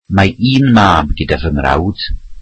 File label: welsh my brother has one son